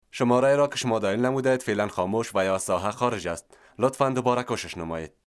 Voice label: dari male